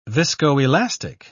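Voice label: "viscoelastic", English, British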